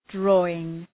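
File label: "drawing", English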